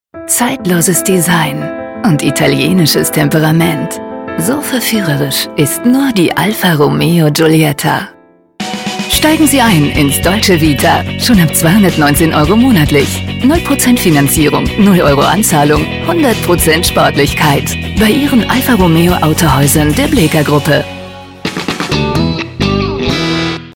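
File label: German, female, commercial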